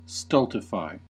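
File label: "stultify", US English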